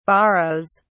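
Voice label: "borrows", British English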